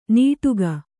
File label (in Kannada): "ನೀಟುಗ", Kannada